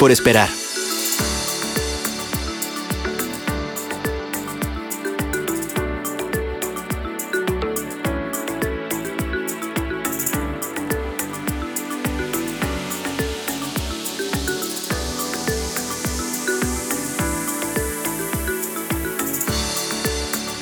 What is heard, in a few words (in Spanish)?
IVR LINEAS OCUPADAS